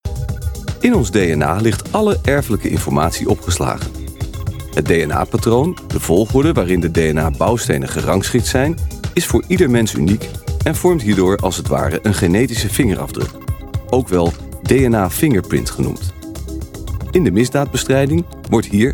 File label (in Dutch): narative alternatief